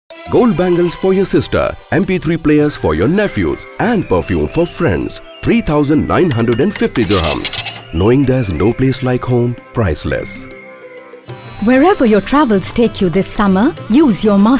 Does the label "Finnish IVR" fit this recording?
No